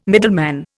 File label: "middleman", Indian